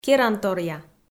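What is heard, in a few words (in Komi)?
"керанторъя", Komi, female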